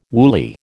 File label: "woolly", American